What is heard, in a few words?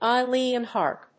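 "aeolian harp", English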